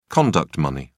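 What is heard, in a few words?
"conduct money", British English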